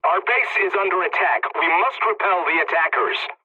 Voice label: pilot base under attack, english; War Thunder